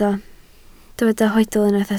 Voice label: "hoedl", Welsh

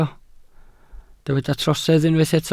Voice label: "trosedd", Welsh

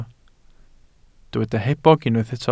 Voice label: "hebog", Welsh

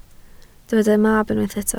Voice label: "mab", Welsh

